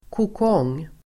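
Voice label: "kokong", Swedish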